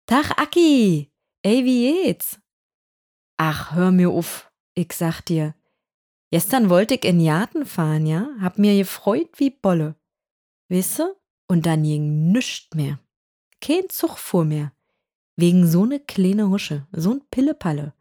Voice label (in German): Berlinerisch